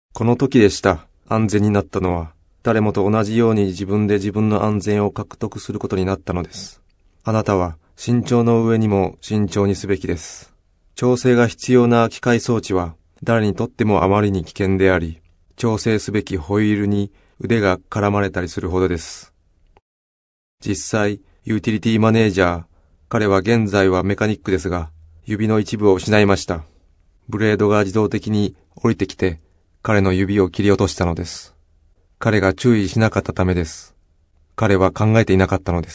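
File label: japanese male voice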